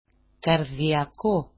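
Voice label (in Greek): "kardiako", Greek; καρδιακό; [karδia'ko]; cardiac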